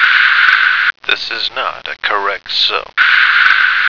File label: "Not A Correct Sum", American